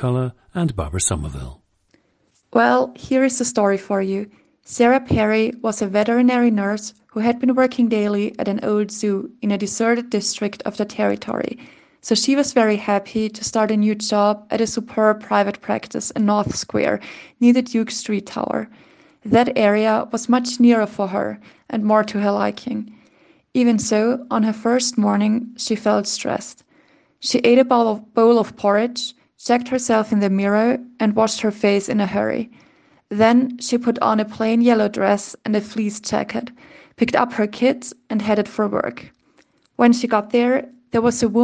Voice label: austria, dialects